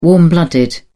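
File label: "warm-blooded", English, British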